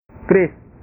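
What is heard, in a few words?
"kris", Indonesian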